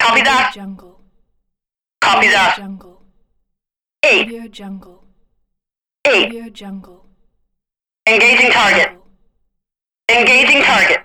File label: female military radio voice pack